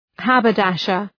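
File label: "haberdasher", English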